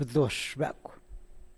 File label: The dog barked, San Lucas Quiaviní Zapotec; Bduax becw.; El perro ladró.